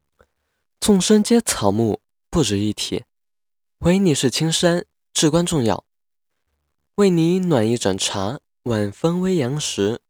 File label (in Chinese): 少年音